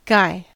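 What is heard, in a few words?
"guy", US English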